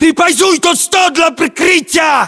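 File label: order cover desk, battlechatter, Russian; localized english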